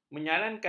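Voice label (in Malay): "menyarankan", Malay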